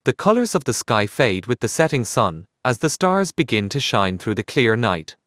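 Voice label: Irish English; iOS Sounds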